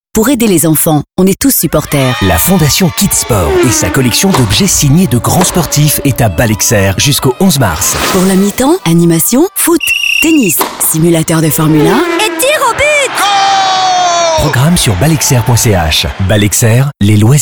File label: spot, radiopub